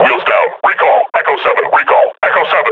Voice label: near panic